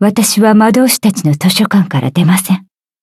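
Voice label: loading, Japanese; Cookie Run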